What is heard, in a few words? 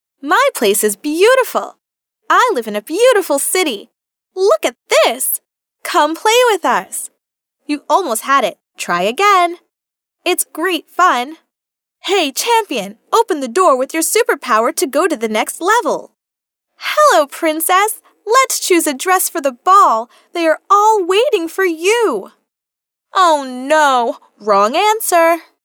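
American English female children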